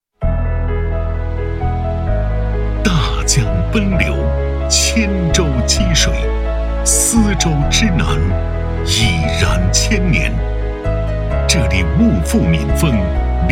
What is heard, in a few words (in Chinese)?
男, 宣传片 招商引资片; 思南